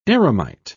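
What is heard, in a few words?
"eremite", British English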